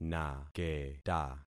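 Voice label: "naketa", concatenated syllables; Affixing experiment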